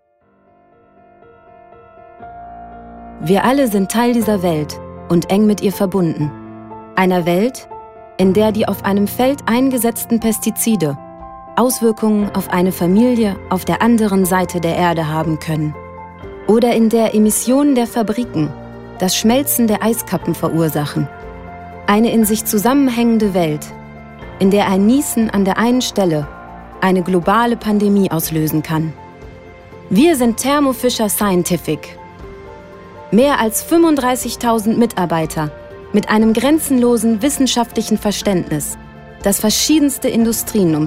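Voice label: DE Corporate, German; GoLocalise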